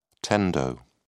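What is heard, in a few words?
"tendo", British English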